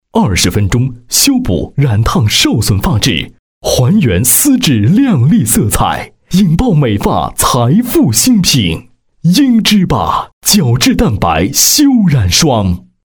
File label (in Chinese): 男 广告; 樱之霸产品